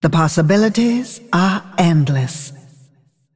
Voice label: Female Dragon Start

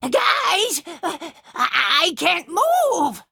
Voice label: Male Imp Play

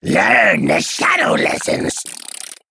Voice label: Male Mantid Play

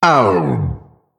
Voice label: Male Arcane Golem Death

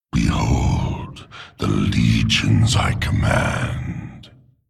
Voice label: Male Demon Trigger

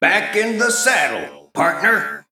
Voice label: Male Mech Combat Loss

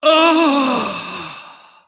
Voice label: Female Titan Death

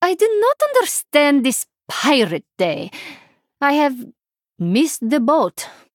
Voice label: Female Draenei; Pirate Day

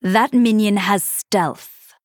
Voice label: Female Night Elf ERROR STEALTH

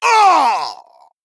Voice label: Male Troll Death

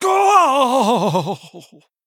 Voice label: Male Human Death

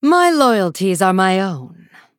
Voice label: Female Blood Elf Emote

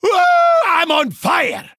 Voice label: Male Dwarf Play

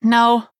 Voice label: Nonbinary Night Elf Error Generic; TB BotB Rising Star; Warrior

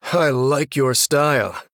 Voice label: Male Human mirror greetings

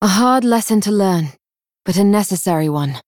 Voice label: Female NightElf Combat Loss

